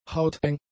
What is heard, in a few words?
"hauteng", German; adjectives